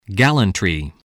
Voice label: "gallantry", voice, English